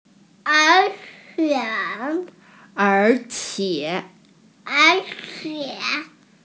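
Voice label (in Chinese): "er3qie3", Mandarin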